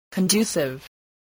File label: "conducive", english